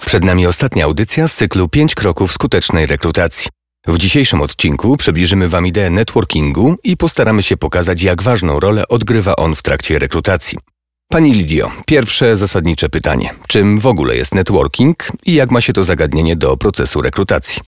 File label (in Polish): narracja off audycja sponsorowana